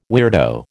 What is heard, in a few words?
"weirdo", American